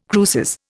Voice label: "cruces", Indian

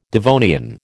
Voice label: "Devonian", American

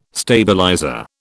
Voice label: "stabilizer", British

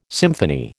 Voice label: "symphony", American

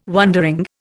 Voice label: "wondering", Indian

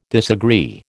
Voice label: "disagree", American

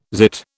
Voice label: "zit", British

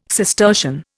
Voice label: "Cistercian", Indian

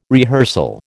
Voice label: "rehearsal", American

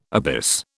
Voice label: "abyss", British

